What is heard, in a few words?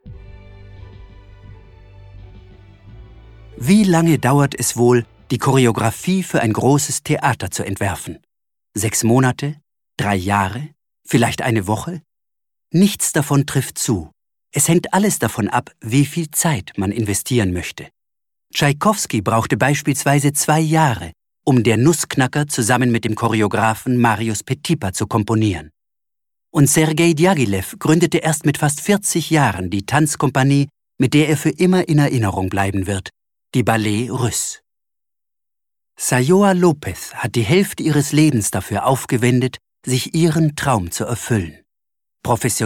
male german podcast narration